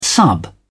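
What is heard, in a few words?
"sub", British English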